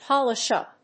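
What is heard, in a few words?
"polish up", English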